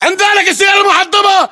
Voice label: landmark near car wrecked, battle chatter, english